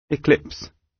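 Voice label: "eclipse" British; Space, the planets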